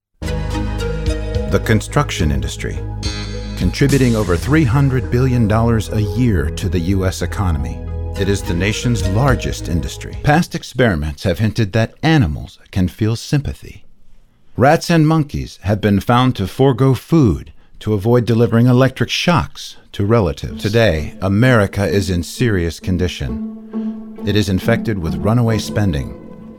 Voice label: Narration